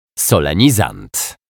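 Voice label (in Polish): "solenizant", Polish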